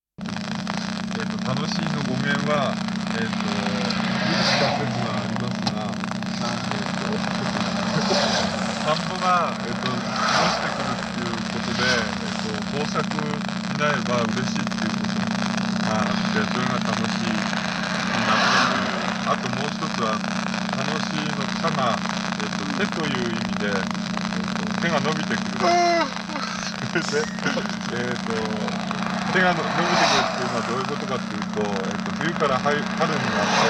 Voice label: car-to-car wireless talking